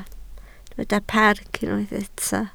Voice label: "parc", Welsh